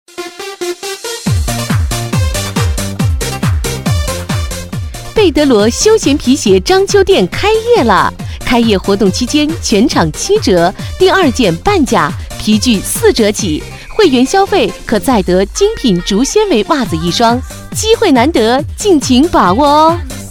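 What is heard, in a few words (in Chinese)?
广告 促销 亲切, 女, 普通话; 贝得罗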